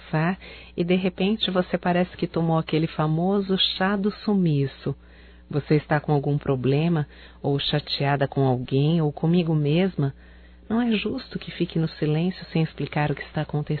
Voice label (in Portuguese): SAUDADES AMIGA, fem, Só Voz